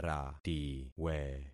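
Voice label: "ratiwe", concatenated syllables; Affixing experiment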